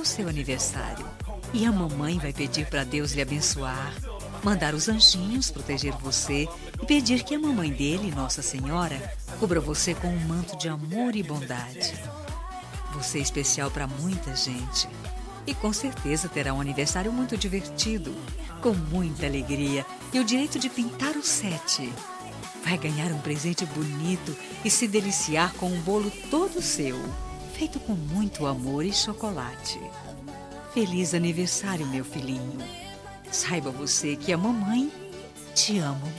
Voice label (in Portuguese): FILHO CRIANÇA F; Aniversários; Aniversários diversos; fem